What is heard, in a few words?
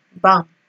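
"bam", Nigerian Pidgin, female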